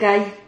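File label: "cay", Spanish syllable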